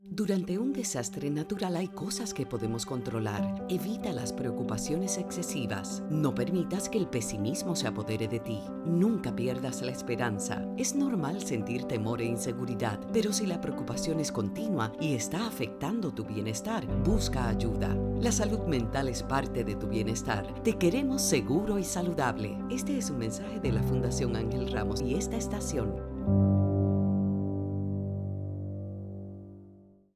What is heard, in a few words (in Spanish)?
PSA Consejos Radio; FAR